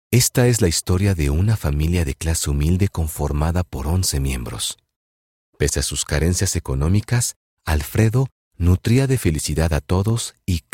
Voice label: spanish latin american narration

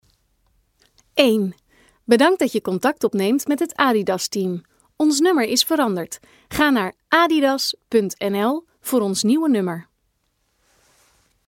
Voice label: dutch ivr

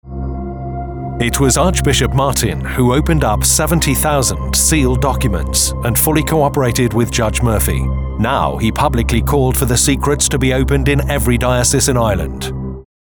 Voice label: english british documentaries

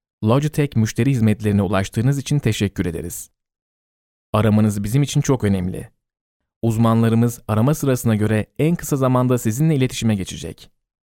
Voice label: turkish ivr; turkish voice over talent

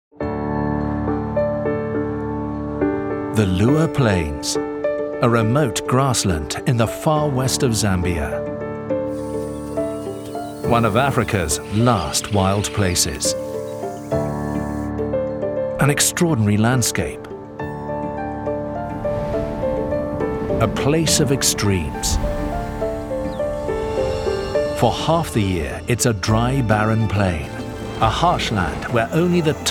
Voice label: english british commercial; irreverent

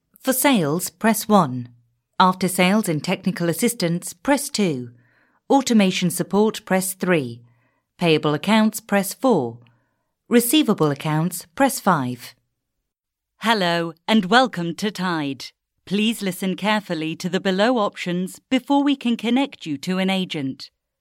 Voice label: english british ivr

